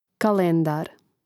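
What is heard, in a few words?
"kalendar", Croatian; mreznik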